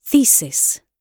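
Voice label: "thesis", British English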